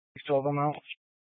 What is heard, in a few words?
Police Department Dispatch; Grand Junction